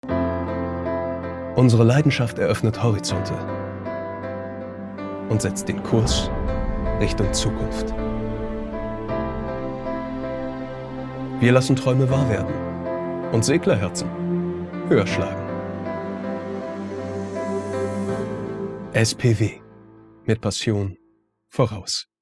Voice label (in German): Werbung; Ausschnitt; Imagefilm